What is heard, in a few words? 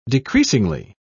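"decreasingly", British English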